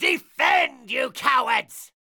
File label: Pirate Elite Male Chokepoint Line; NPC; Heist Thug Chokepoint